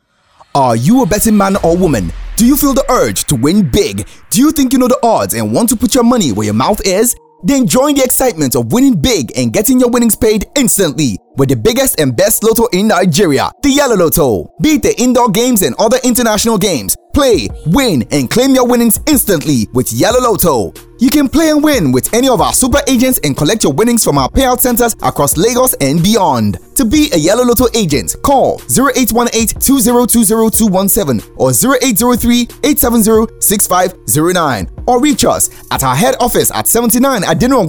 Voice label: English promo; Yellow lotto